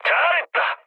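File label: Korean bomb success mood med, speech; War Thunder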